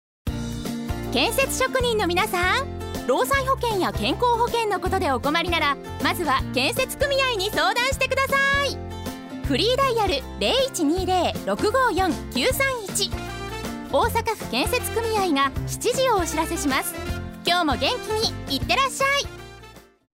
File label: radio; osaka